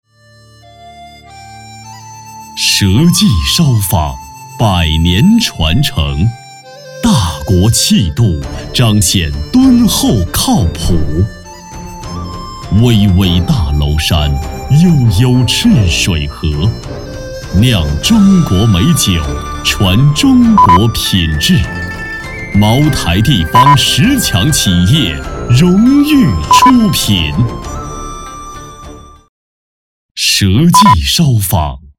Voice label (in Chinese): 男 广告 酒